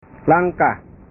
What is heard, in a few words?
"langkah", bahasa